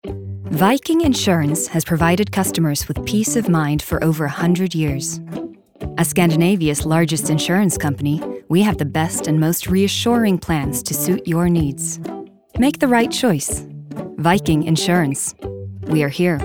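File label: us commercial, American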